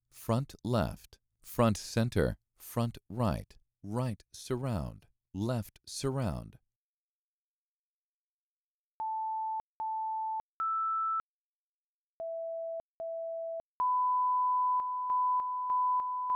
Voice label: Narration